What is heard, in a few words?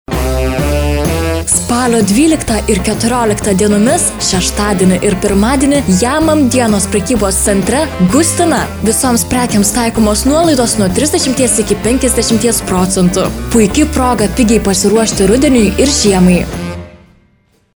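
Lithuanian, female; lektoring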